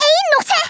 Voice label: "oi nutter", Scouser